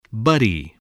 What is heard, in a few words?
"buddy", spelling